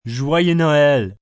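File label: Merry Xmas French M, male, French; Holiday Sound Effects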